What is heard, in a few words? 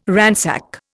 "ransack", Indian